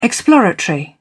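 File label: "exploratory", English, British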